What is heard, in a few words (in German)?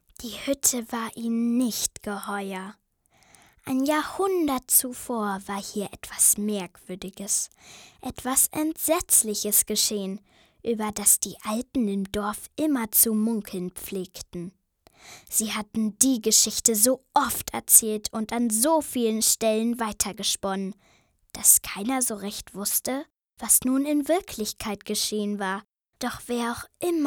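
Mädchen; Die Hütte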